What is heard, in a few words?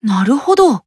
Emo Yes